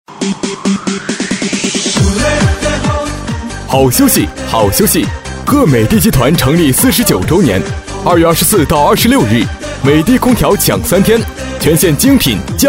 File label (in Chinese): 【促销】; 抢美的